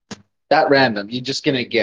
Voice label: voice message